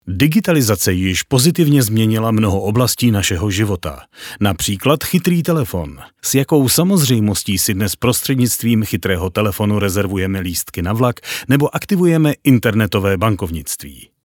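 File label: Czech, male, narration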